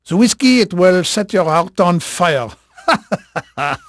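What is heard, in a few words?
French male, voice; Pirates Of The Caribbean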